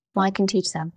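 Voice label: Voice Acting es, female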